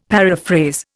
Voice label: "paraphrase", Indian; Dictionary